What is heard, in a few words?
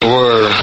"whirr", voice; ooh ahh